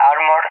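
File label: "armor", English, SPAIN; Target; DCS; Allied Flight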